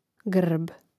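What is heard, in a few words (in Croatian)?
"grb", hrvatski; mreznik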